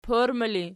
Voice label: "porma", Archi